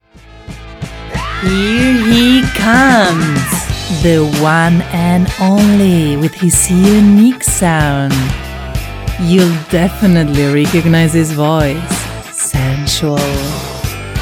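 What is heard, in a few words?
voice over English imaging velvety soft; Commercial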